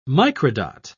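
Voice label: "microdot", British English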